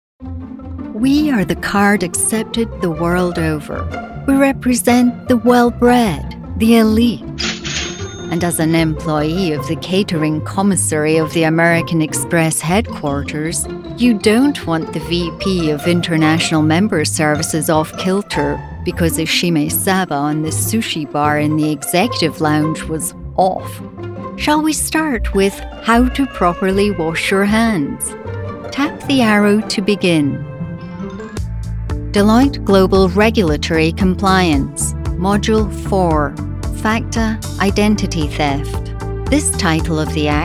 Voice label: ELearning